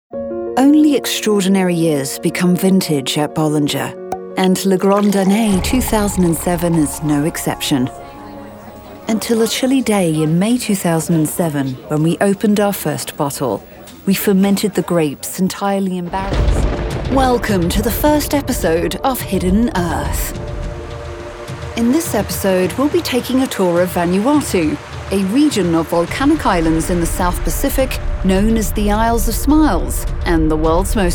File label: Narration